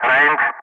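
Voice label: "for", English; Ka-50; Wingman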